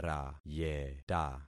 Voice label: "rayeta", concat syllables; Affixing experiment